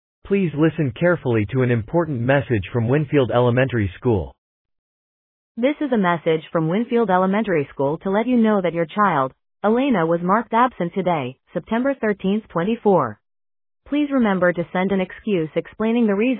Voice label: Voicemail